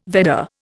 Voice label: "Vedda", Indian

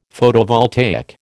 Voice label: "Photovoltaic", American

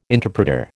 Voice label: "interpreter", American

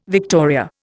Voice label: "victoria", Indian

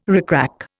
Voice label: "rickrack", Indian

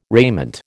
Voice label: "raiment", American

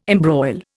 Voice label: "embroil", Indian

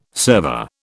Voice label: "server", British

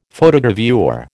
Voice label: "Photogravure", American

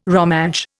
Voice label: "Romansh", Indian

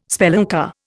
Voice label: "spelunker", Indian

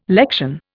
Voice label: "lection", Indian

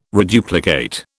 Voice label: "reduplicate", British

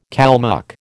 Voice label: "Kalmuck", American

